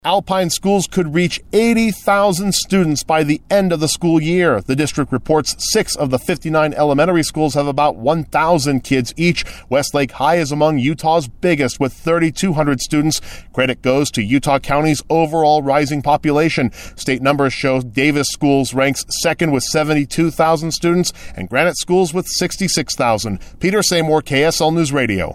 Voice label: VOICE PSA; ALPINE POPULATION